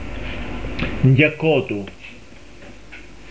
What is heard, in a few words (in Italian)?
"nchjaccòtu", pronuncia, dizionario carigliaro